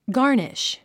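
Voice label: "garnish", English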